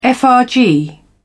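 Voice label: "frg", British English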